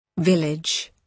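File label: "village", British English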